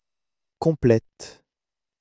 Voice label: "complète", French